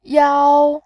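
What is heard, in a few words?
"yao", Mandarin Chinese, tone 1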